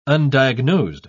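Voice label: "undiagnosed", British English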